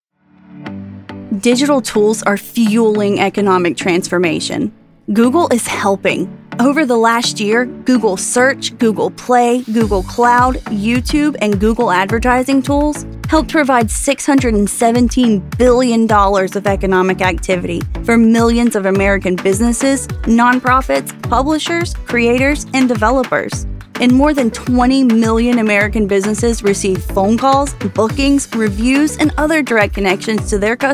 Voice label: Corporate Narration, Voiceover